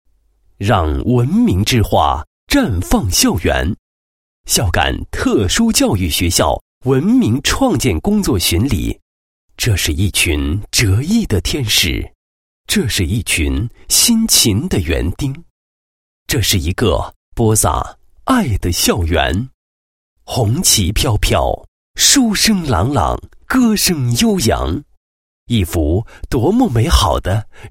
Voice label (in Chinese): 男-学校-特殊教育学校文明创建工作巡礼, 配音案例